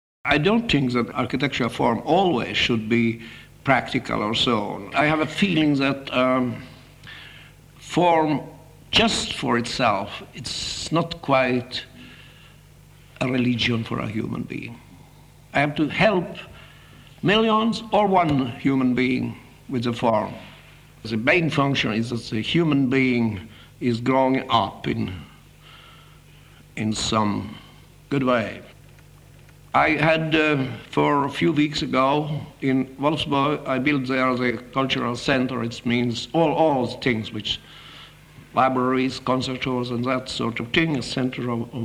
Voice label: spoken word alto mono; city of the future